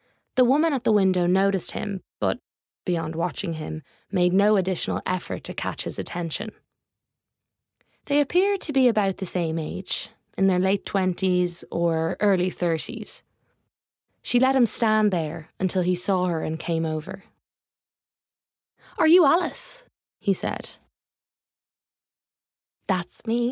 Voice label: Audiobook